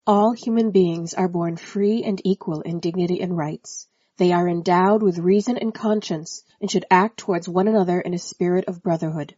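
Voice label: English American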